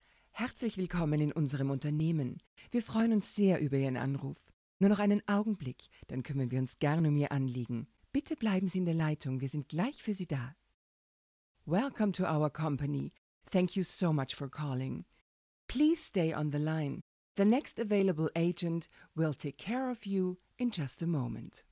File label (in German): Telefon Ansage